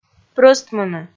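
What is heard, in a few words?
"прӧстмыны", Komi, female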